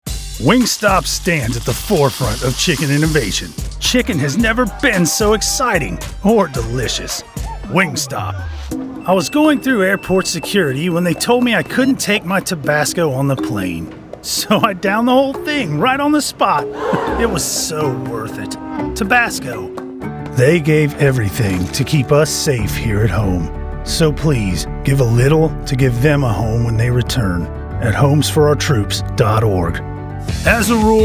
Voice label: Commercial, Animation and Commercial Voice Over, male